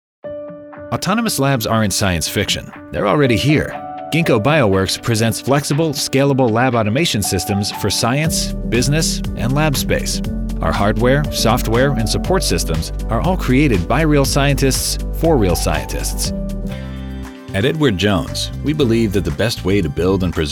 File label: Corporate